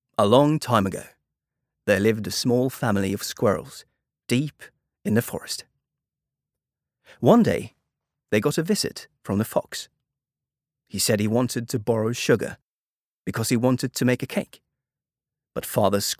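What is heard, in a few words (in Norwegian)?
Norwegian engelsk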